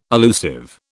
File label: "allusive", British